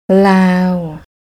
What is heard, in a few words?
"Laaw", Thai; test thai tones